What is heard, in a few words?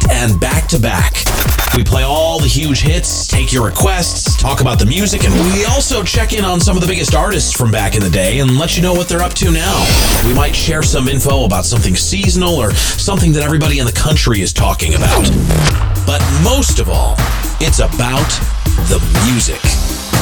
Retro Mix Promo